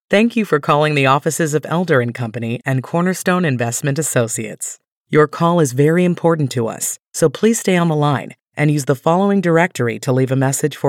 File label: Telephony Warm Friendly Welcoming Professional IVR